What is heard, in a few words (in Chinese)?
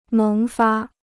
"萌发", Chinese